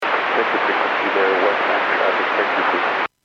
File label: Aviation 121.5 Emergency